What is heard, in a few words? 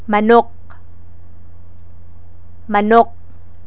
"manok", Tagalog